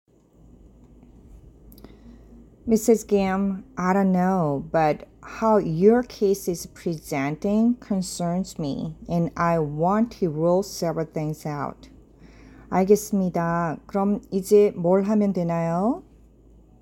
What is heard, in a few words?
Medical Certified Oral Korean Practice